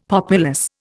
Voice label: "populous", Indian; Dictionary